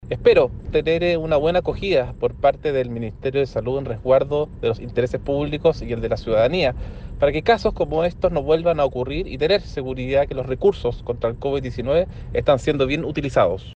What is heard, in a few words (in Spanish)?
cuña